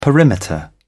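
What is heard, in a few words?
"perimeter", British English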